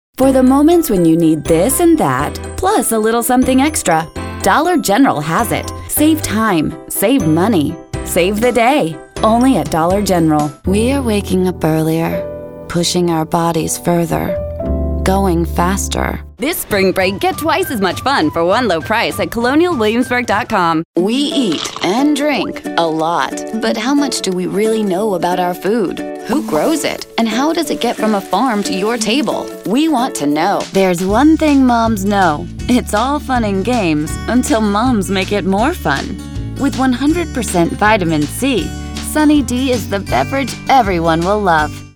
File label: Voice Over